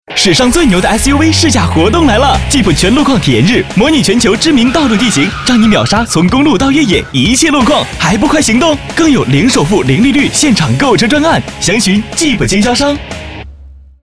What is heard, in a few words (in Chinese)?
广告试驾